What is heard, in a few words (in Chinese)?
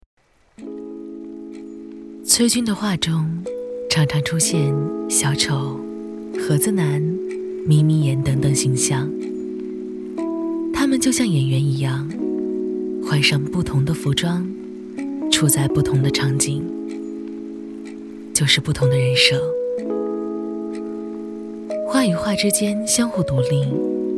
女,讲述